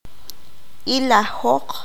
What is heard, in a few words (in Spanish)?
"Ojear", qanjobal